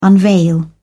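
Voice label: "unveil", English, British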